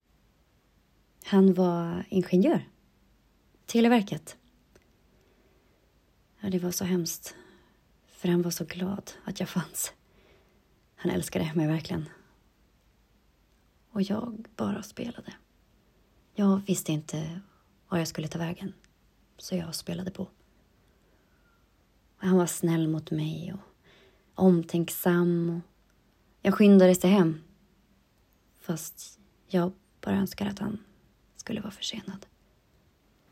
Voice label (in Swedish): Rikssvenska